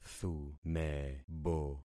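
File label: "thumebo", concatenated syllables; Affixing experiment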